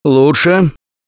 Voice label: pain relief first aid, russian, Survivor Voice; Left 4 Dead 2; Gambler